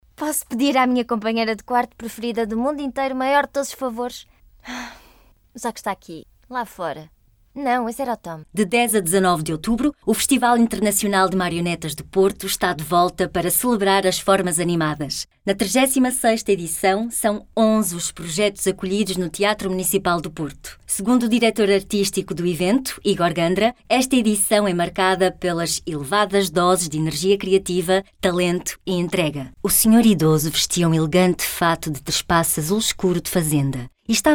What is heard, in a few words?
female, portuguese voices